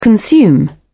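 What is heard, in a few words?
"consume", British English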